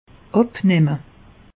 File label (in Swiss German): "abnamme", alsace; meteo